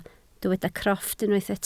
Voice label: "crofft", Welsh